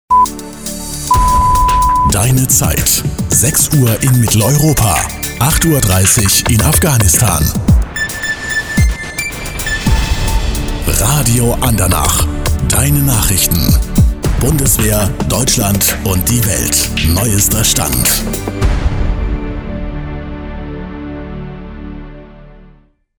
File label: radio; andernach